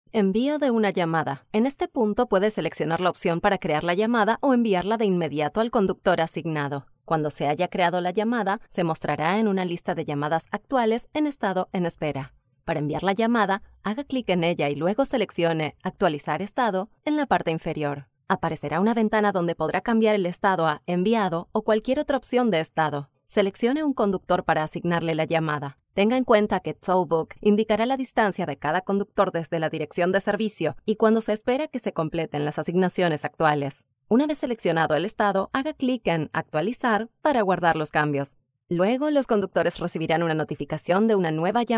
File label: spanish latin american e-learning